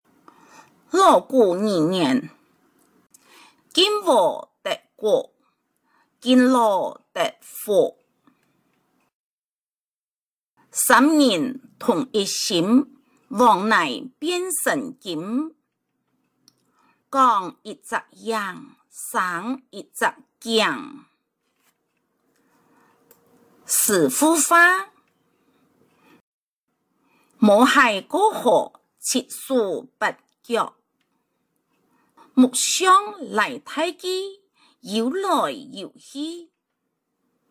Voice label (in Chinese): 客語俗諺 四縣腔